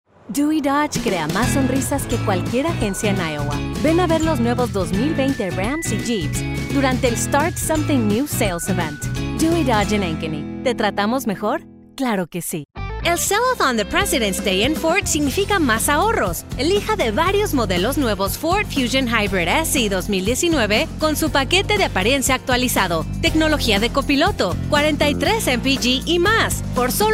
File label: Spanish - Auto Spots